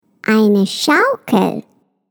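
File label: "swing", German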